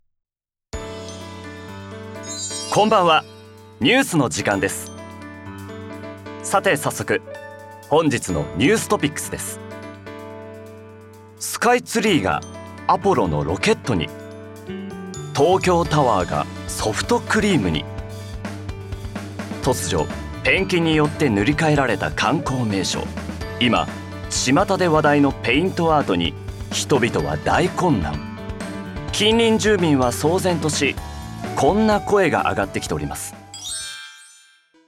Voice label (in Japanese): ニュースキャスター風